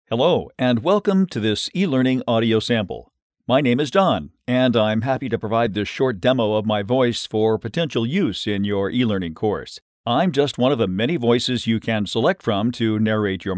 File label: Formal, voice talent